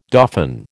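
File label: "dauphin", American English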